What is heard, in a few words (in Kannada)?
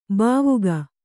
"ಭಾವುಕ", Kannada